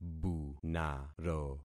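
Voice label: "bunaro", concatenated syllables; Affixing experiment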